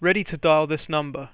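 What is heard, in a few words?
ready to dial this number, English, Voice Sounds; Samsung SGH-i310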